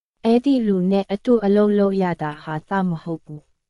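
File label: Myanmar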